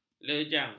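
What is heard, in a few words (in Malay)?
"lejang", Malay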